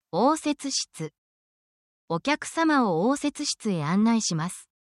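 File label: Japanese word, essential Japanese phrases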